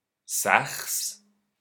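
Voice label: "sächs", German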